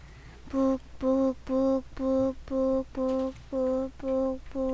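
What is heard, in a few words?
"book", spoken word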